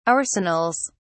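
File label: "arsenals", British English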